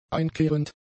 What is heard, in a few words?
"einkehrend", German; adjectives